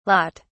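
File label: "lat", British English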